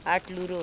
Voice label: "aT luro", Andamanese

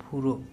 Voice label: "pHuro", Andamanese; owl

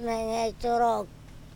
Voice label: "menge corok", Andamanese

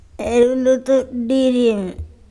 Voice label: eyeball ErulututDirium, Andamanese

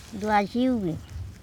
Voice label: who is he du aSyu bi, andamanese

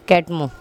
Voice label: "kETmo Lico", andamanese; thread

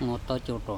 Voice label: "ngOtOcoTO", andamanese; road curved

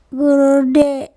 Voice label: thunder, kuruDe

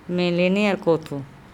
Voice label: "melene Erkotho", andamanese; mix sugar

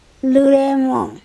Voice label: "luremo" rope, andamanese

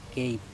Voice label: "keip" soil red, andamanese

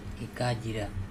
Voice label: "ekajira", Andamanese; chilli

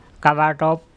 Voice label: "kalaTop", Andamanese; crab

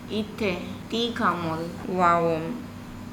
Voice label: "itte ti kHamol waom", Andamanese

